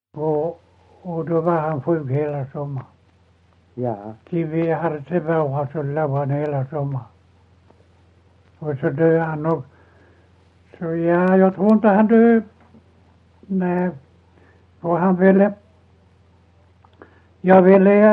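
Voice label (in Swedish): Ekeby Skåne, dialekter, svenska sagor; Folkminnen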